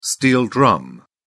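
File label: "steel drum", British English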